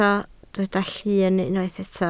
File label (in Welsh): "llun" Welsh